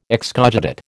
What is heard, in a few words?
"excogitate", American